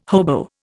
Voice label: "hobo", Indian